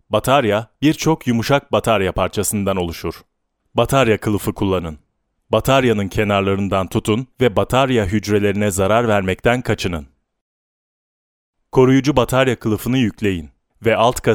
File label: tr elearing